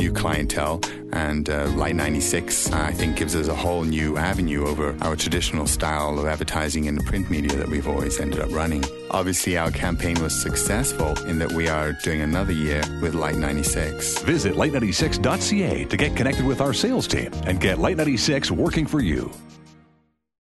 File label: camera store testimonial